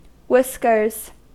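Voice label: "whiskers" English, US; pronunciations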